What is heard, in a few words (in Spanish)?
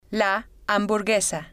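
"la hamburguesa", spanish, female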